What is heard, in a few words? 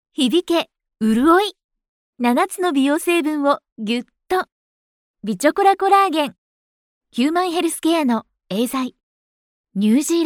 locutora japonesa japanese voiceover; locutora de Japón